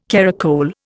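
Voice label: "caracole", Indian English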